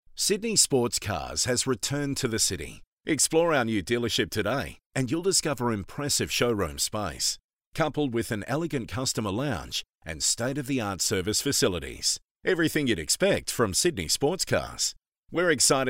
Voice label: Conversational